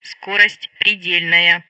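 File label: Speech Russian; Messages; MinimumSpeed; Betty; DCS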